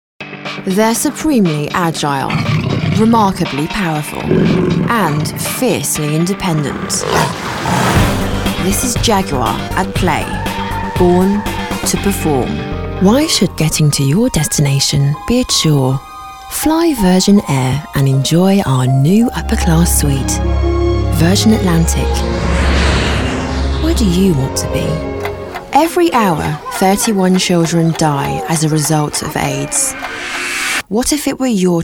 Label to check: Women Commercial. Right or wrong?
Right